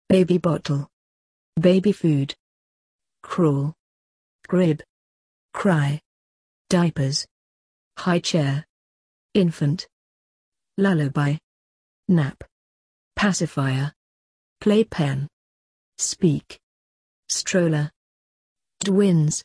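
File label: British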